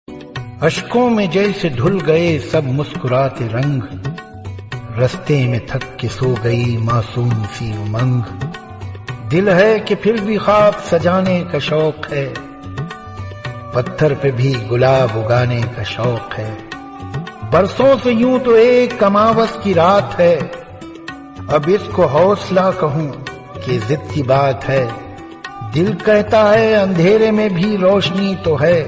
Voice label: Shayari Ringtone